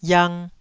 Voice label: "iang2", taiwanese syllable